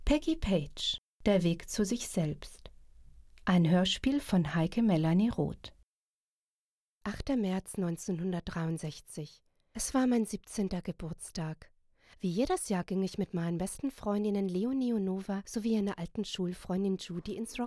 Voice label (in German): hoerspiel